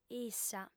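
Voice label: "issa", female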